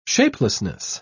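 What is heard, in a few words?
"shapelessness", British English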